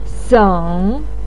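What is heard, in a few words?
"seng", Mandarin Chinese syllable, third tone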